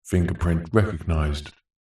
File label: science fiction system voice male says finger print recognised